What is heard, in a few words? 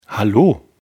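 "hallo", German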